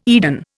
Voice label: "Eden", Indian